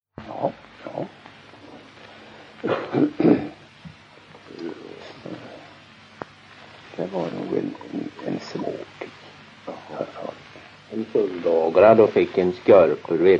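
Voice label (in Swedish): Kroppa Värmland, dialekter; Folkminnen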